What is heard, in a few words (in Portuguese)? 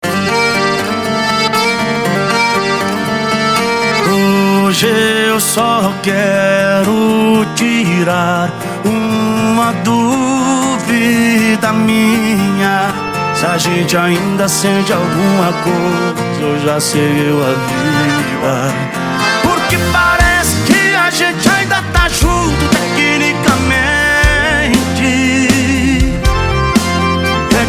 locutor a voz magnifica